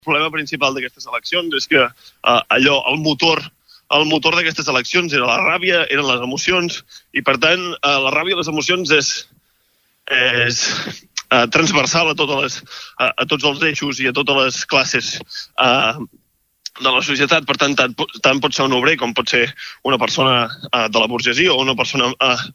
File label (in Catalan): VOX Emocio